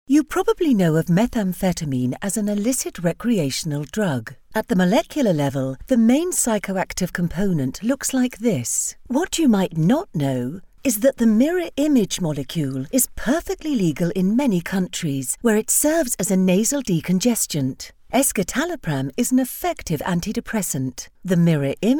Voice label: english british medical narration